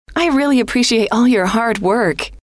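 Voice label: i really appreciate all your hard work, female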